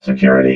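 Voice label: "security", robot voice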